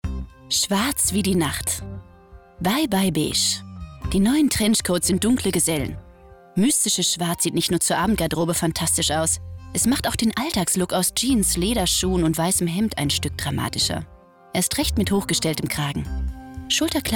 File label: presenter mode